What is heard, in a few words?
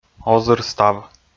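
"озырстав", Komi-Zyrian, male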